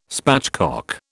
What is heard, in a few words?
"spatchcock", British